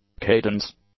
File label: "cadence", English; nouns